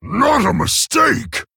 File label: Male Orc Oops